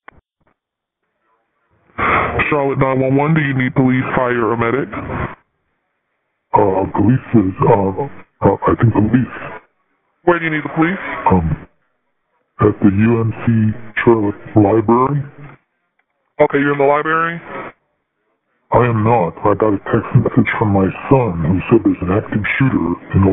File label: 911 call; 9201 University City Bv